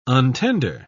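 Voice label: "untender", British English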